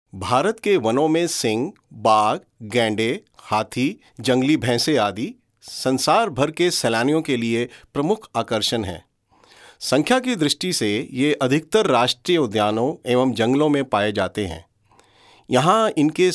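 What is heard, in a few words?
Documentary Hindi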